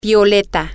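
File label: violet, Spanish, female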